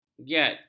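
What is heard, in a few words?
"giat", Malay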